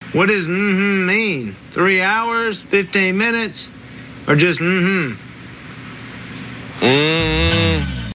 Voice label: mountain man mmmmhmm

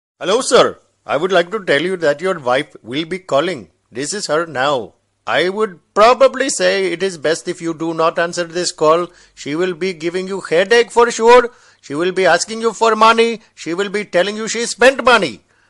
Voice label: indian wife warning ringtone

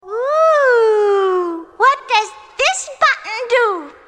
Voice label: what does this button do cartoon tone

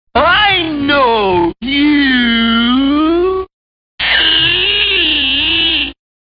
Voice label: i know youuu eeeeeee funny ringtone

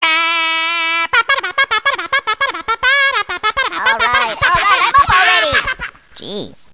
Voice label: funny minion talking tone ringtone

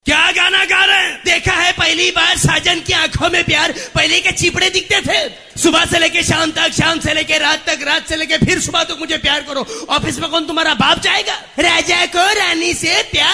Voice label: duplicate voice funny ringtone; nana patekar

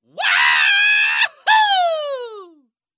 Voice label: "yahoooooo" loud voice message tone

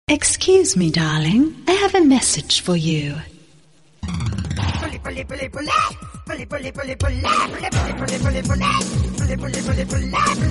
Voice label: excuse me darling message tone; sms ringtones

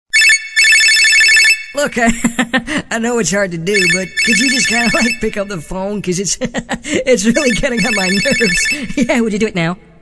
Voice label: funny says ringtone

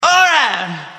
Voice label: alright sms ringtone

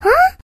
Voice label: huh funny ringtone

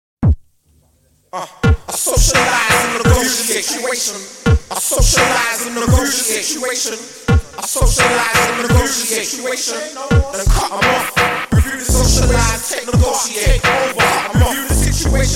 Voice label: whisper ringtone

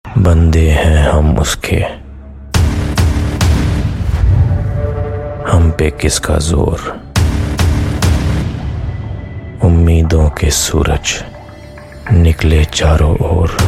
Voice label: sayari ringtone; dhoom 3

